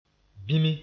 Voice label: "bĩmi", moore